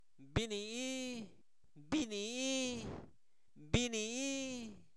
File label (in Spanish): Zapoteco Albarradas, santo domingo albarradas; rocío; fenomenos naturales; INALI